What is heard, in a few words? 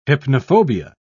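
"hypnophobia", British English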